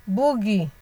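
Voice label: "boogie", Nigerian Pidgin, female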